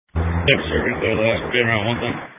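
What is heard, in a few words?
Police Patrol Dispatch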